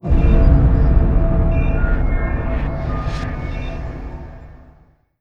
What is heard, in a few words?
sfx galactic emperor greeting; Stellaris; nemesis